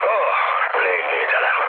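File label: Hungarian damaged aa mood low, speech; War Thunder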